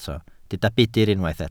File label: "budr", Welsh